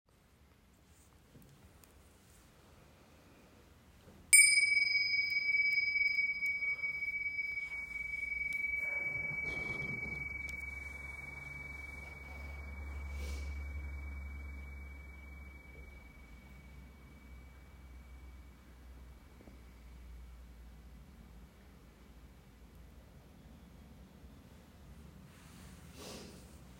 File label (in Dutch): Meditatie velden van aandacht; mbsr training februari 2025